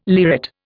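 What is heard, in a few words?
"lyrate", Indian English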